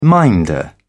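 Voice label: "minder", British English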